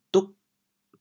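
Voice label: "dug8", Teochew, chaoyang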